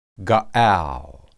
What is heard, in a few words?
"gaal", Hebrew